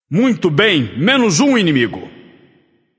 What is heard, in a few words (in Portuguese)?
notify slain you enemy, vox caster portuguese